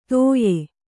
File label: "ತೊಯೆ", Kannada